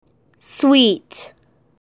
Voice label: sweet